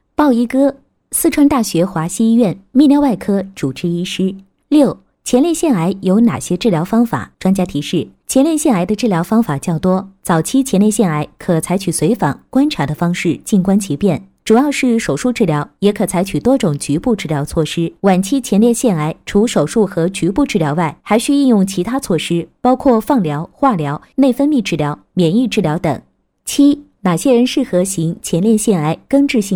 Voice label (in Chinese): 女, 课件, 医疗课件